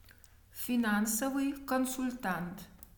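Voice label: "finansovyj konsultant", Russian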